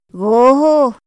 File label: "goho", Otomí; INPI; INALI